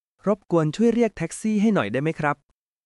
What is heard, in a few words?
Thai, basic thai phrases